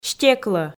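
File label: "стеклӧ", Komi, female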